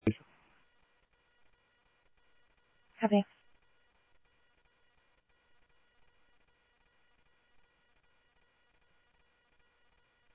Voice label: Mesa Simulcast, MESA PD, scanner audio

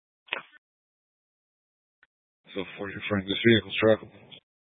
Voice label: Phx PD Dispatch, scanner

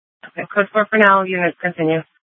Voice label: Sheriff, scanner P25; Shrf Lakes

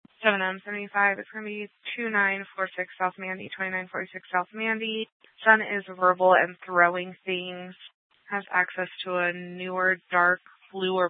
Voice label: Dispatch, scanner

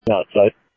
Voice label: Sheriff, scanner audio

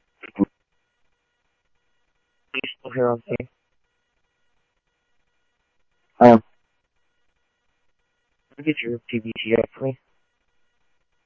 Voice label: Tempe Police, scanner

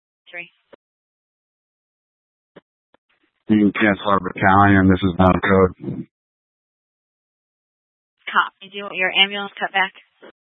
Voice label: Fire Departments, scanner

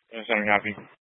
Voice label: Scottsdale Police, Simulcast

